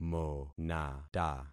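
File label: "monata", concatenated syllables; Affixing experiment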